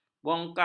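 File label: "bongkah", Malay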